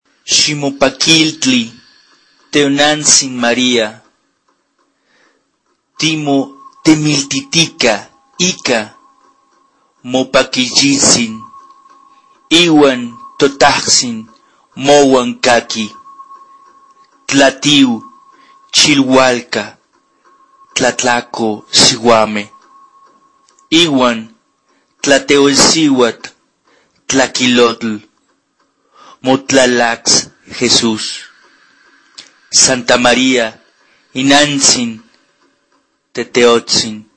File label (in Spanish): ave maria nahuatl normal; ave maria idiomas